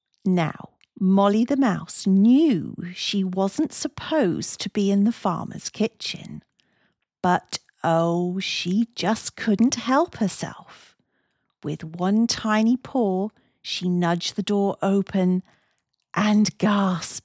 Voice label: Warm British Storytelling, voiceovers; CHARACTER